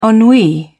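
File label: "ennui", British English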